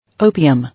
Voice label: "Opium", English